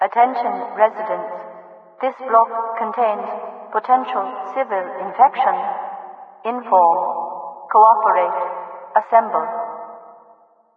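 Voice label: train station inform speaker; npc; cityvoice; Garry's Mod; hl2; overwatch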